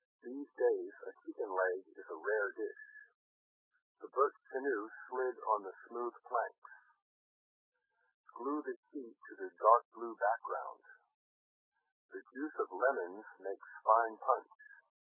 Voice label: male, shifting